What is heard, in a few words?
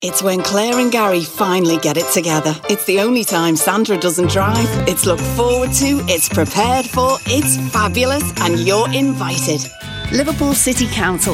native liverpudlian